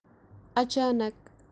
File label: "achanak" suddenly kashmiri